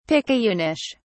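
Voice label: "picayunish", British English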